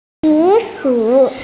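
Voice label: "zi3shu3", Mandarin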